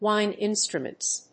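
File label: "Wind instruments", English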